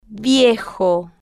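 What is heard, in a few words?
"viejo", spanish, slow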